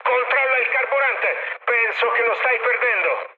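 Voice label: Italian tank damaged mood med, speech; War Thunder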